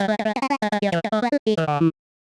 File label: medium clear scrappy, voice archetypes; voxel audio preview